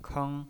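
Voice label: "keng", first tone, pinyin voice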